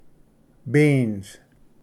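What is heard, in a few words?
"beans", English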